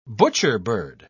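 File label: "butcherbird", English, British English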